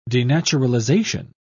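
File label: "denaturalization", British English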